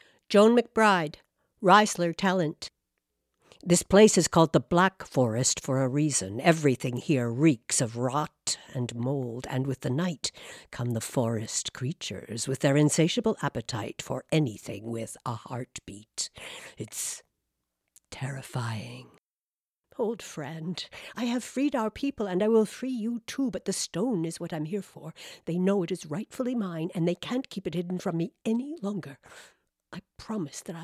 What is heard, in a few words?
Videogame Voice; Voice Demo; women